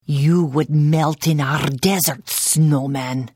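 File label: Hello, Khajiit female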